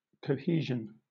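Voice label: "cohesion", British English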